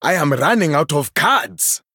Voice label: Male Troll Low Cards